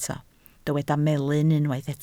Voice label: "melyn", Welsh